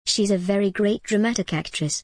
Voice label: en gb she s a very great dramatic actress